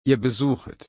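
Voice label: "besuchen", German, slow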